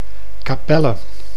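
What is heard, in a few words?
"Kapelle", Dutch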